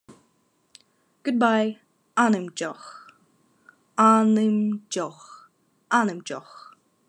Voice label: Khakas Good bye

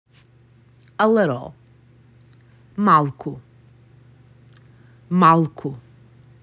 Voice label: A Little, Macedonian; the talking macedonian phrasebook

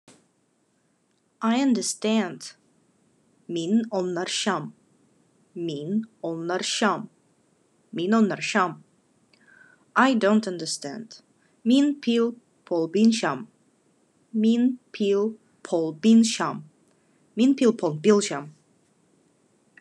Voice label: "I understand, I don't understand", Khakas

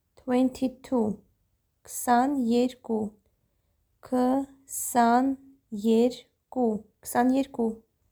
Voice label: 22, armenian; ksanerku

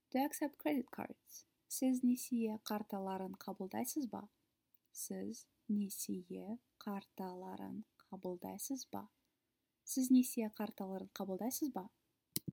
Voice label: Kazakh Do you accept credit cards

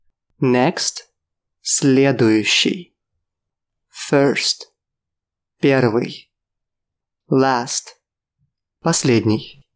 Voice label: RUS Next first last

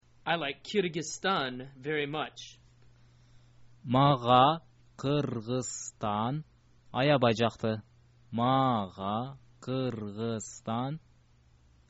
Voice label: i like kyrgyzstan, kyrgyz

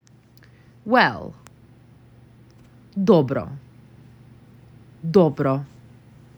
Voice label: "well", macedonian; the talking macedonian phrasebook

